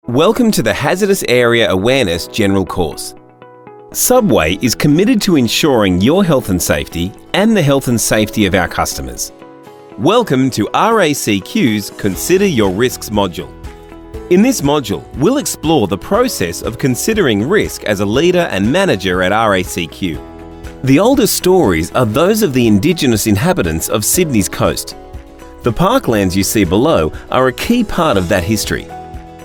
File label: Male corporate